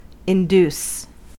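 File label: "induce", US English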